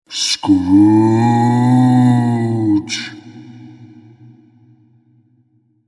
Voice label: "Scrooge" Spoken, The name 'Scrooge' spoken in ghostly voice; from Charles Dickens' ‘A Christmas Carol’; Talking